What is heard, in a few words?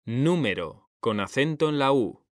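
"número", Spanish; mekanta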